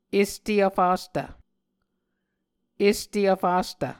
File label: "este vfastv", Muscogee